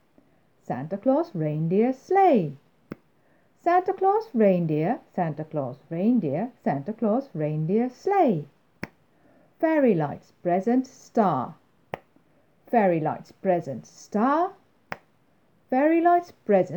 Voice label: christmas word chant